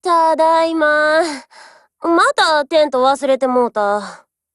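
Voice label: OPERATION OVER Japanese, Voice; GF Alarm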